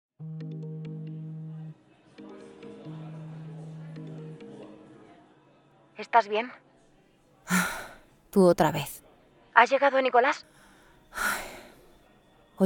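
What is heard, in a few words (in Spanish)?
doblaje España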